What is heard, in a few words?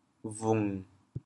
"bhung1", chaoyang